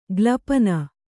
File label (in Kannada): "ಗ್ಲಪನ", Kannada